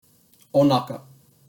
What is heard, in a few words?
"onaka" Japanese